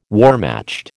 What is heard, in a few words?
"Wehrmacht", American